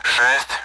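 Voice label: Speech, Russian, AWACS; Digits; DCS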